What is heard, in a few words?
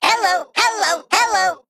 Male Mech Start; Annoy O